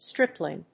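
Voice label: "stripling", English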